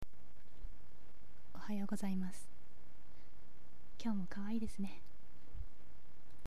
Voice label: boy